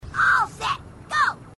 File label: grunt crazy; h2dialogue